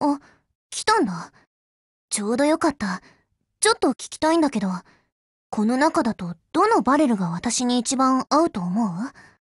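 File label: HELLO, Japanese; GF Alarm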